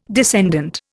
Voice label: "descendant", Indian